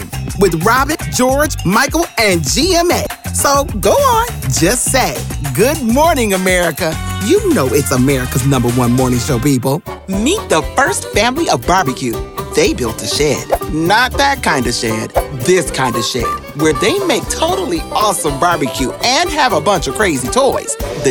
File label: Promo AA Female; Demo